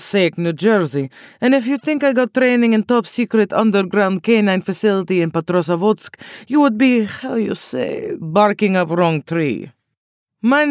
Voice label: Russian Character